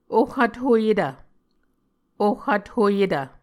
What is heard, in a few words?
"oh vthoyetv", Muscogee